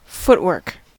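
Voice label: "footwork" US English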